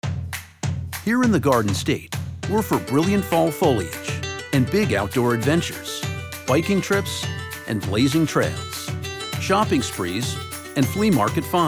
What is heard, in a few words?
Radio; NJ Tourism; Fall